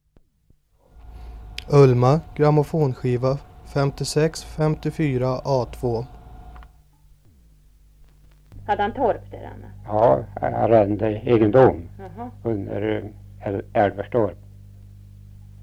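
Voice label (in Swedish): Grythyttan Västmanland, Svenska sagor, dialekter; Folkminnen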